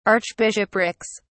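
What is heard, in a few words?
"archbishoprics", British English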